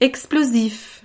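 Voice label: "explosif", French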